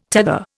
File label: "tether", Indian